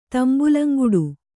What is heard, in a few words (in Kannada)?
"ತಂಬುಲಂಗುಡು", Kannada